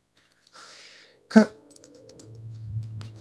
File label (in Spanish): Voice Acting es F0